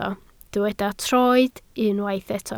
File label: "troed", Welsh